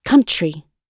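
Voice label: "country", British English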